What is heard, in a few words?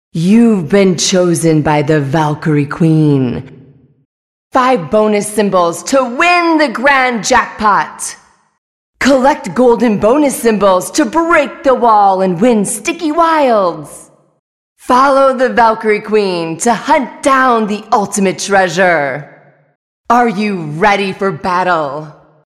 Video Game Character; Valkyrie